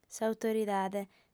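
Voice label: "s'autoridade", Sardinian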